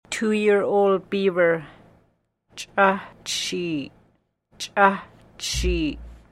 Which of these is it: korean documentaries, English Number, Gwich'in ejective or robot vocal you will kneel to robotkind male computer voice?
Gwich'in ejective